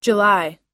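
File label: "july", US English; July – Julho; Datas em Inglês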